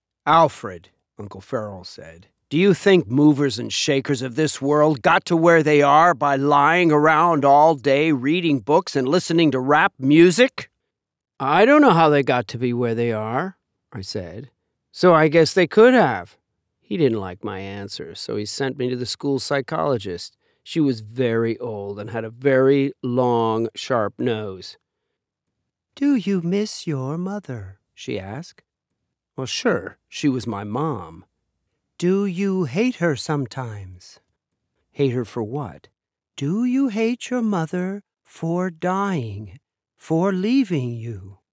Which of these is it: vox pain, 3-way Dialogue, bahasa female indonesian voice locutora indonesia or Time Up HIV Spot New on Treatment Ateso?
3-way Dialogue